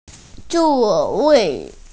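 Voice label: "zuo4wei4", Mandarin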